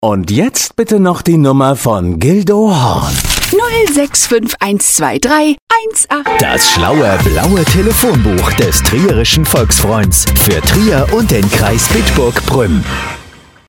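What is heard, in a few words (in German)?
werbung, deutsch männer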